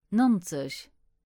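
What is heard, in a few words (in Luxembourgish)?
"Nanzeg" Luxembourgish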